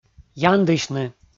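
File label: "яндысьны", Komi, male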